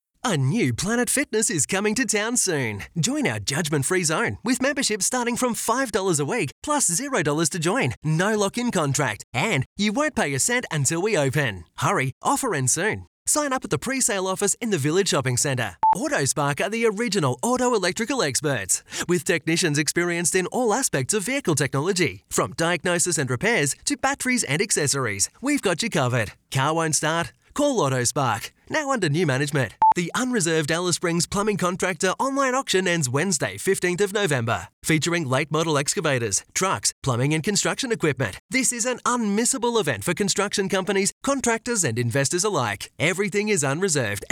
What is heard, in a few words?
Retail Friendly, Aussie Tradie; Young; Cool